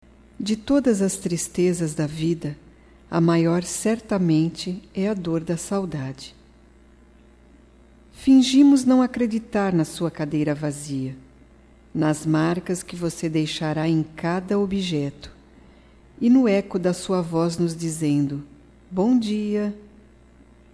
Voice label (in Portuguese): DESPEDIDA (PARA COLEGA DE TRABALHO) - F, Só Voz; fem; Telemensagens 2017